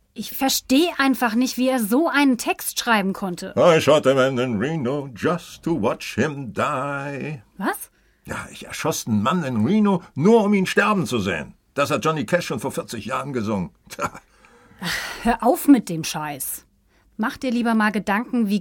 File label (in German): Hörprobe Hörspiel